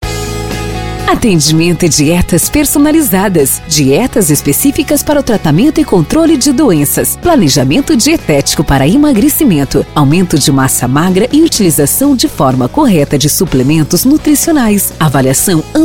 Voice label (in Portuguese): VOZ IMPACTO GRAVE vinheta spot chamada comerci; para nutricionista esportivo para emagretas